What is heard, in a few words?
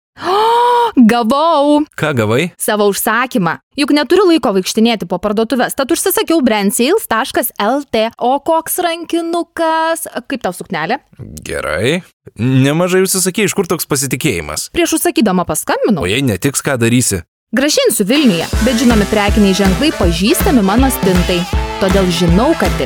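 Lithuanian, female, commercial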